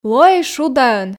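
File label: Komi sentences, female; лоӧй шудаӧн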